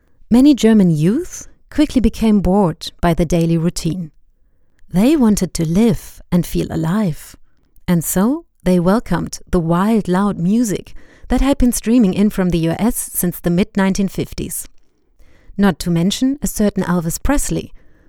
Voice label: English ger